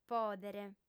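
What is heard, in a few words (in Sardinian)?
"pòdere", female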